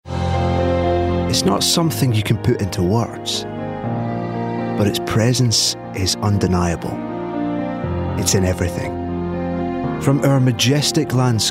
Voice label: Commercial Voicereel